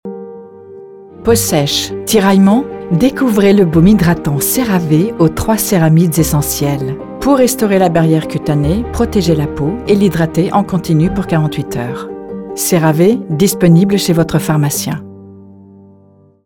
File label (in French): Pub vente dynamique